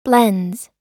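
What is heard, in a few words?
"blends", US English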